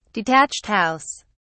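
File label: "detached house", British English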